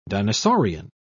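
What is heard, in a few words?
"dinosaurian", British English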